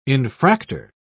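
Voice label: "infractor", British English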